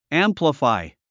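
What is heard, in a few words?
"amplify", English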